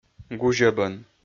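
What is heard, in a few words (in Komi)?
"гузьӧбӧн", Komi, male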